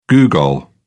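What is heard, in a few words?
"googol", British English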